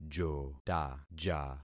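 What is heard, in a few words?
"jotaja", concatenated syllables; Affixing experiment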